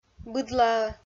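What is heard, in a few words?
"быдлаӧ", Komi, female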